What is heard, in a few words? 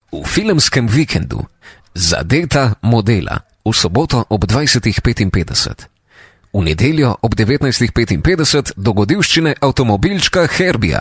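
slovenian male commercial; voice demos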